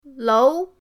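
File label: "lou2", Mandarin Chinese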